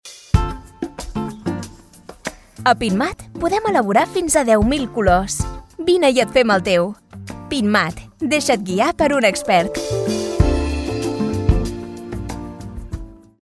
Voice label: CATALAN; 10.000 COLORES; pinturas andalucia

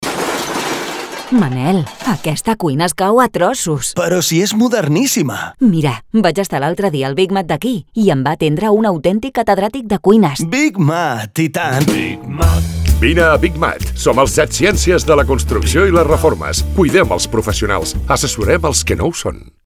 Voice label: Cuña mujer y cocina Catalan; BIG MAT SOCIOS CATALAN

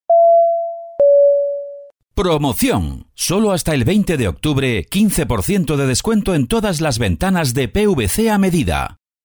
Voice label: PROMO PVC; BIG MAT PLATAFORMA

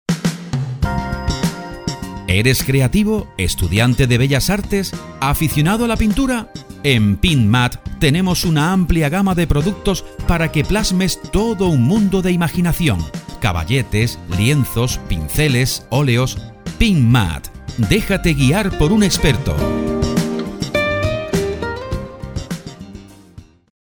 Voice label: BELLAS ARTES ESPANOL; pinturas andalucia

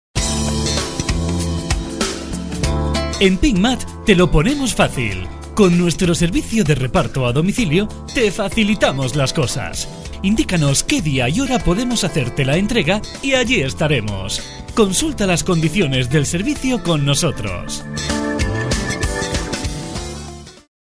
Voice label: REPARTO A DOMICILIO ESPANOL; pinturas andalucia

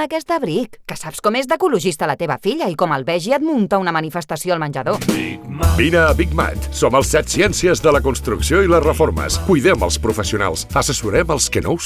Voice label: Cuña Estufa Pellets CAT; BIG MAT; SOCIOS CATALAN

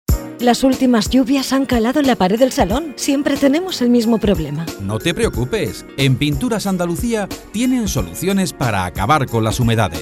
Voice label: CONVERSACION; PINTURAS ANDALUCIA; HUMEDADES

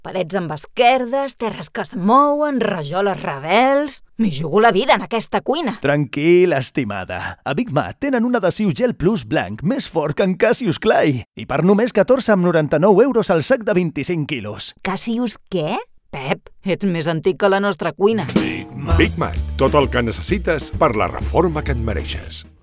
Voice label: Adhesivo Catalan; BIG MAT SOCIOS CATALAN; BigMat Adhesivo Competitiva

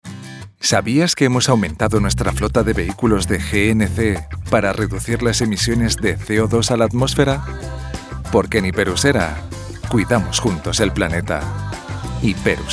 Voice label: CUÑA MEDIOAMBIENTE

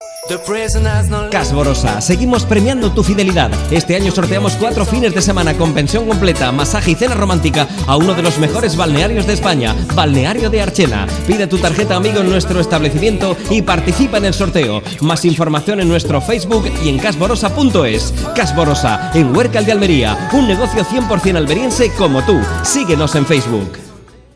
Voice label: cuña; ALVAREZ LUCHA SL; alerta sanitaria; Balneario

